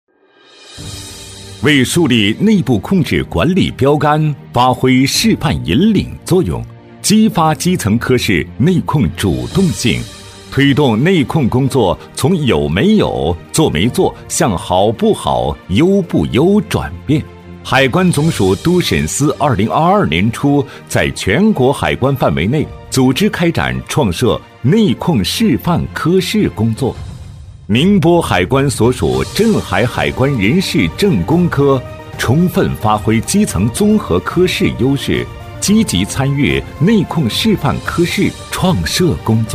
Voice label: 男 机关专题 海关 大气硬朗; 镇海海关; 大气稳重

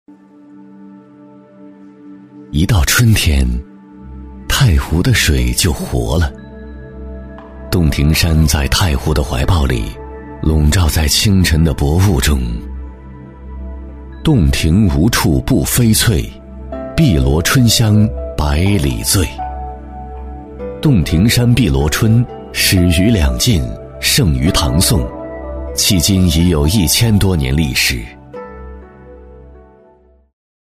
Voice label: 男-茶类纪录片 自然 感情, 高端品质 沉稳大气; 碧螺春

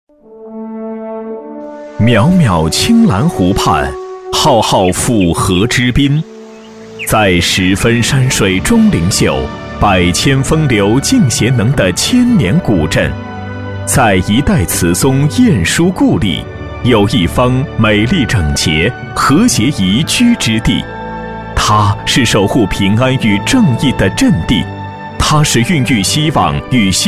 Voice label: 男 专题宣传; 男40-磁性稳重 大气浑厚; 江西省温圳监狱建监

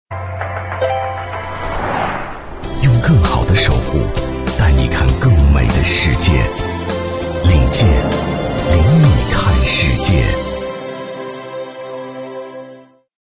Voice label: 男 汽车品牌广告 质感; 福特 领界; 磁性质感 高端大气; 汽车品牌广告【福特 领界（质感）】

